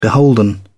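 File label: "beholden", British English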